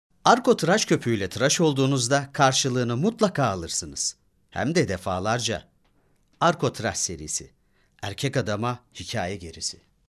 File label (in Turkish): reklam